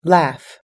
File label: "laugh", us-english